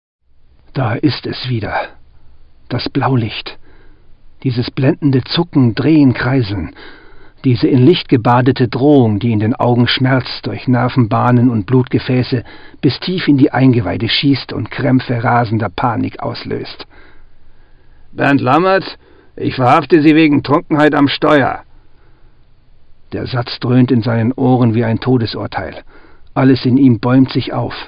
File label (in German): hoerbuch; Hörbuch Schattenzone